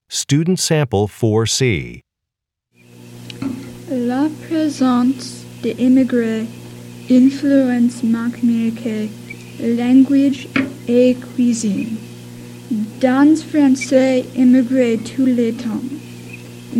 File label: french